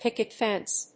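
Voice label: "picket fence", English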